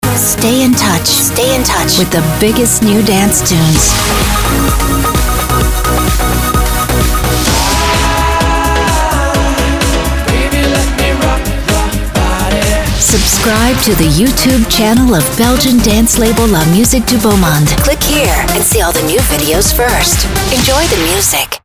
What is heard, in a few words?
commercial